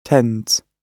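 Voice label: "tends", British English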